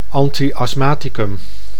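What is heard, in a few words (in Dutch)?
"anti-asthmaticum", Dutch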